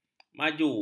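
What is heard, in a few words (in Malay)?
"maju", Malay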